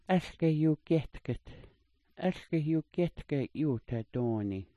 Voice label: "algayugyetgit", smalgyax